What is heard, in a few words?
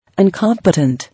"Incompetent", English